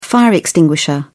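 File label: "fire extinguisher", British English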